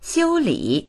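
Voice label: "xiu1li3", Chinese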